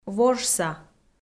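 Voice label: "вожса", Komi, female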